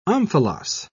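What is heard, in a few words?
"omphalos", English, British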